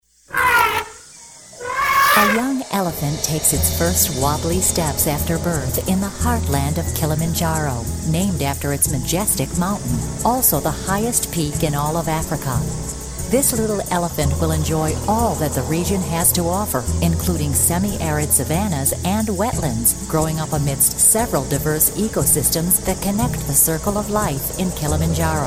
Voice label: Documentary

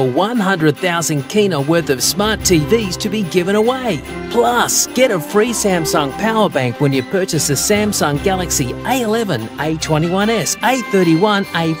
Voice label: Commercial Phone; Samsung; Phone Hause

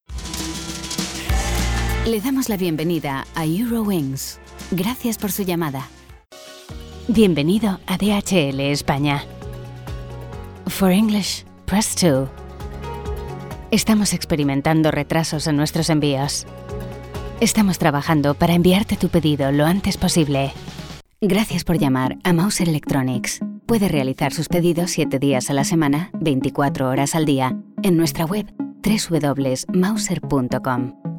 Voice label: phone compilation voices

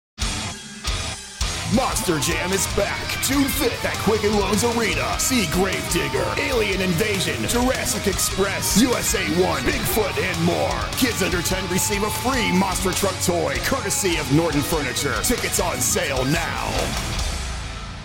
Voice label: Monster Truck Tv Commercial; Television Spots; Excited

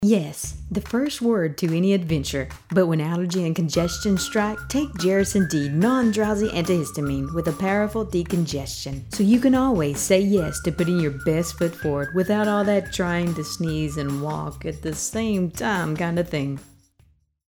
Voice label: Allergy Commercial

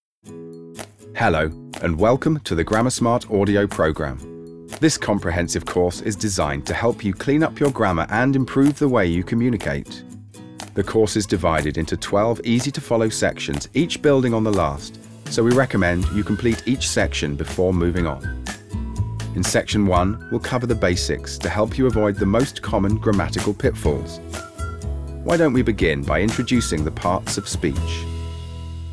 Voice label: E Learning; Grammarsmart

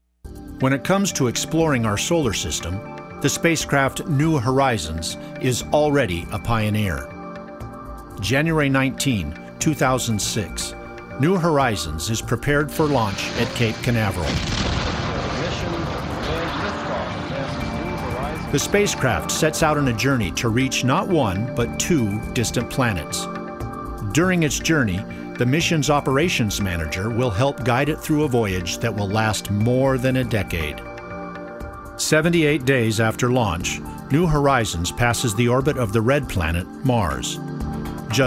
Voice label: Narration and Infomercial

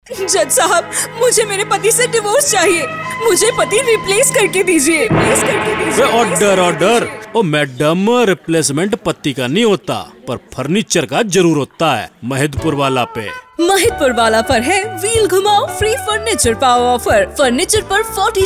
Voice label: haryanvi

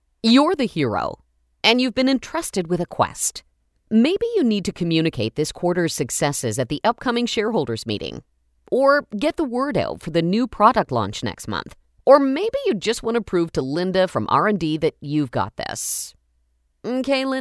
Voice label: Eccentric Fun

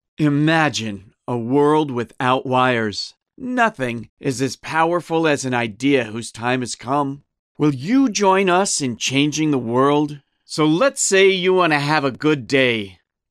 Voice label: Voice Dry

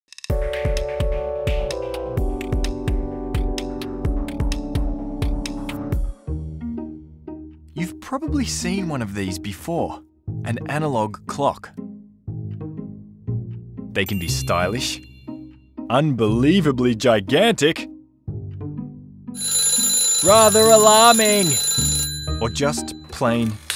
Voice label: Telling The Time Kids Educational

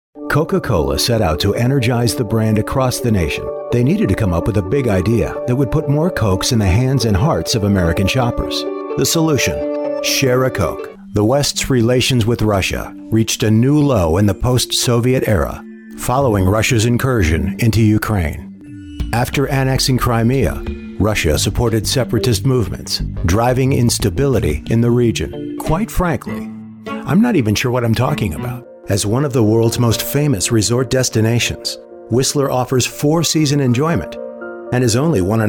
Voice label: Voice Over

